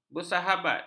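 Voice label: "bersahabat", Malay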